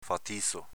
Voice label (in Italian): "fatizo", dialetto veneto; agg. deciso, determinato